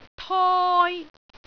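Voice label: "toi1", Cantonese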